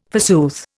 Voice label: "forsooth", Indian

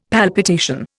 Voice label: "palpitation", Indian; Dictionary

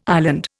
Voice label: "island", Indian

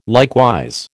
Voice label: "likewise", American

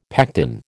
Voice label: "pectin", American

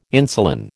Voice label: "insulin", American

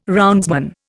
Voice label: "roundsman", Indian

